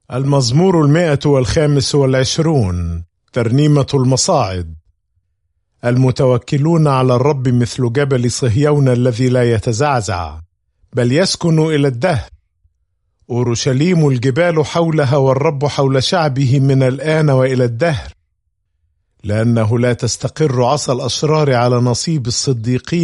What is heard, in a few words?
Psalm, arabic bible; psalms